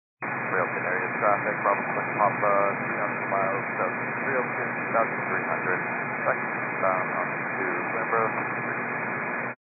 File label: 122 925MHz, scanner radio